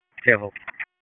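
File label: Sheriff's Office Dispatch; WSO DISP 1; wake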